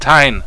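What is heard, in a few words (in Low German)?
"teihn", Plattdüütsch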